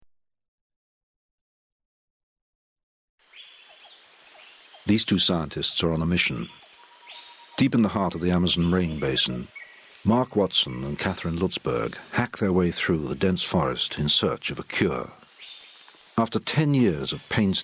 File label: documentary